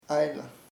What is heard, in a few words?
happily, chamacoco; feliz